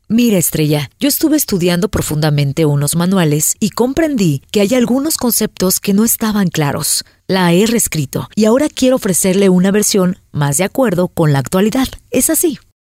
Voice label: locutora mexicana mexican voiceover